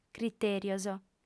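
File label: "critèrios", female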